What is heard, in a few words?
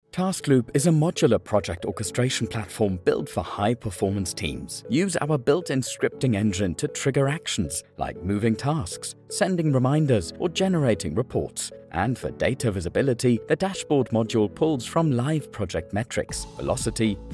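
EXPLAINER EN, NATIVE UK - klar, freundlich, verständlich, Tutorial; English UK; TASKLOOP EXPLAINER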